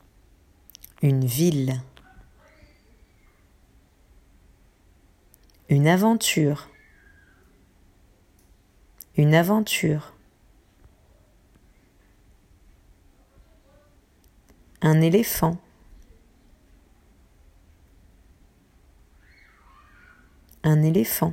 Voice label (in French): Dictée mots